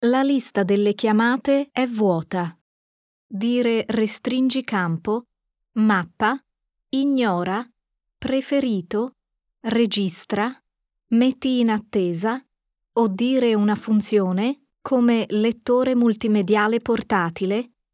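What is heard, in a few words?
Italian, navigation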